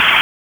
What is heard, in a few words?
Police Northwest District Tac 1; Raleigh; wake